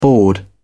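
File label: "bored", British English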